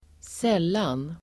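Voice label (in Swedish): "sällan", Swedish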